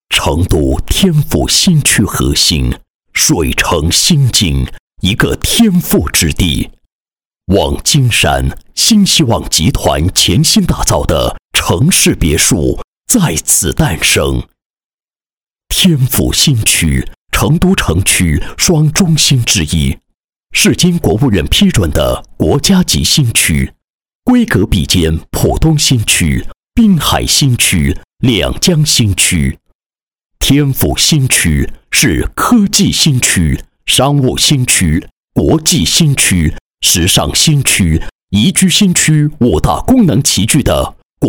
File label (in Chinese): 男 房地产广告; 成都天府新区宣传广告